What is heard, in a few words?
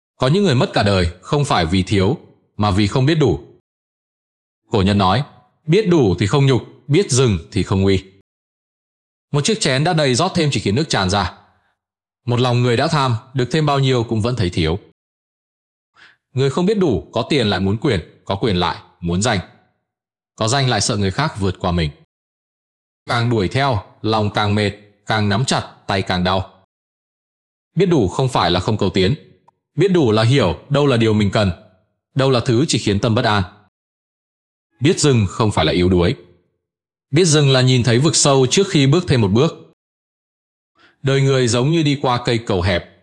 narration; Biet Du Thi Khong Nhuc; Loi Co Nhan